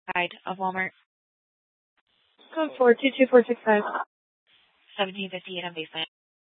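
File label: police department Dispatch, scanner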